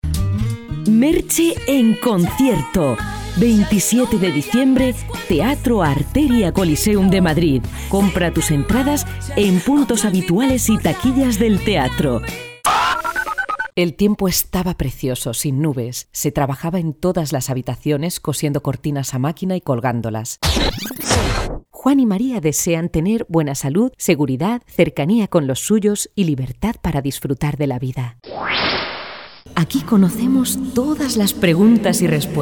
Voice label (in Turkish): Ispanyolca Kadin; seslendirme